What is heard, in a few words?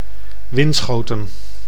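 "Winschoten", Dutch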